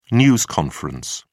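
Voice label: "news conference", British English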